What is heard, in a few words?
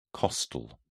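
"costal", British English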